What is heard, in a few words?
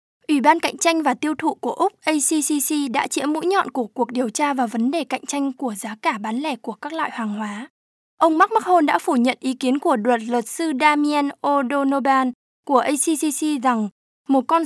Nth Vietnamese FVO